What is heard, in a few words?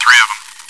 chitchat, bot, voice commands; svencoop